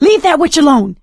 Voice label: witch getting angry, survivor voice; Left 4 Dead 2; producer